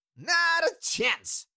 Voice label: Cartoon little monster voice; not a chance